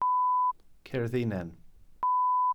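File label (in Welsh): "cerddinen", Welsh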